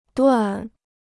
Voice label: "段", Chinese

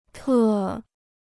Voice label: "特", Chinese

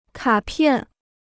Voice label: "卡片", Chinese

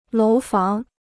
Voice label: "楼房", Chinese

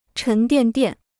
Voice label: "沉甸甸", Chinese

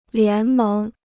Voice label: "联盟", Chinese

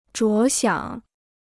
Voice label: "着想", Chinese

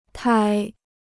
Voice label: "胎", Chinese

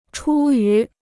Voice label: "出于", Chinese

